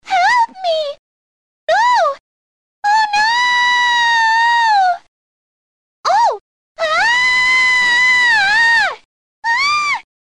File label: help me no oh no oh scream; peach